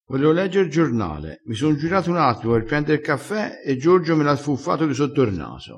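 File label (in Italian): "fuffa", dialettale crespinese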